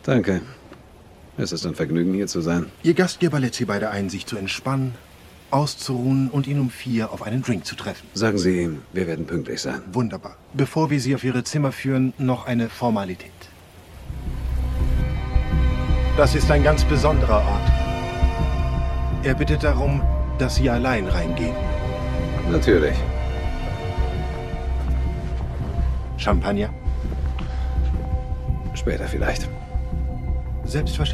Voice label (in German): Bond leichter Akzent DE; James Bond; Spectre; Rolle \"Rechte Hand\"